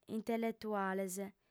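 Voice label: "intelletuales", female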